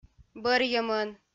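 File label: "бӧрйӧмӧн", Komi, female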